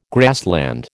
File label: "grassland", American